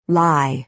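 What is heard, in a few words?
"lie", American English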